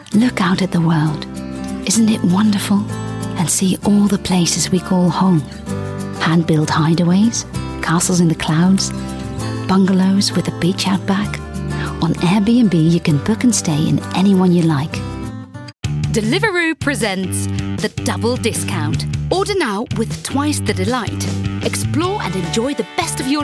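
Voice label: UK, commercials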